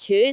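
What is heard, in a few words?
"cyut2", Cantonese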